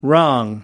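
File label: "wrong", English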